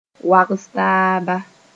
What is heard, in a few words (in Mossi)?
"wags-taaba", Mooré; competition